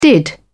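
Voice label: "did", British English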